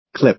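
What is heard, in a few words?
"clip", American English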